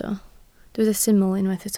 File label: "syml", Welsh